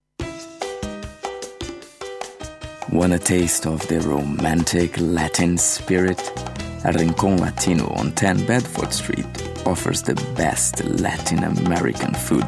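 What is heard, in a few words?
Brazilian English; Portuguese-Brazilian, Male, Home Studio, 30s-50s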